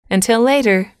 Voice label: "until later", English